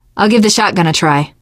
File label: take auto shotgun, teen girl, survivor voice; source engine; left 4 dead 2